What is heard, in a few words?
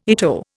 "ITO", Indian